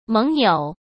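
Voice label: "盟友", Mandarin Chinese; hsk; chinese-flashcards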